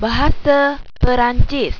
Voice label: french, malaysian